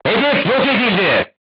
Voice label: voice message target destroyed, crew tanks turkish